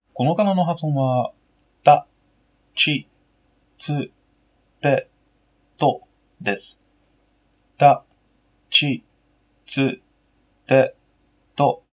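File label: tachitsuteto, Japanese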